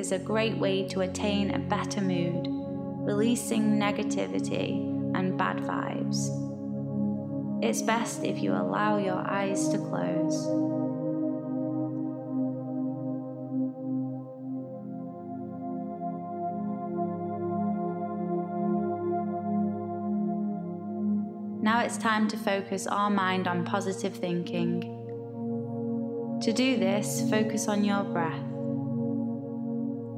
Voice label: Guided Meditation For Growing Positiveness